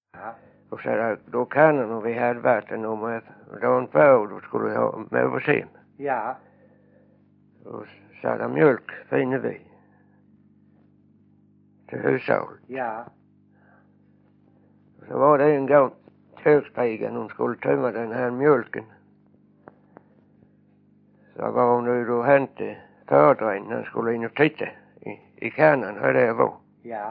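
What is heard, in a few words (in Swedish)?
Vånga Skåne, dialekter; Folkminnen; Svenska sagor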